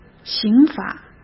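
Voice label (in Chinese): "刑法", Mandarin Chinese; hsk; chinese-flashcards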